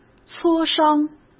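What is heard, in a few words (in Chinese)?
"磋商", Mandarin Chinese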